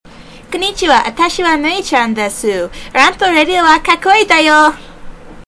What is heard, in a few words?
Promo Language Japanese; RantRadio; RantMedia; Station Promos-Listener Submitted